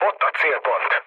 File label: near waypoint, mood low, speech hun; War Thunder